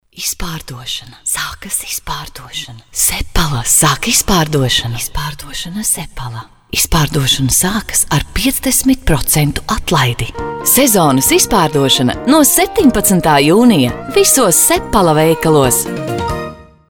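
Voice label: Latvian, female; balsai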